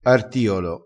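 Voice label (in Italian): "artiolo", dialettale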